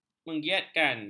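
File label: "menggiatkan", Malay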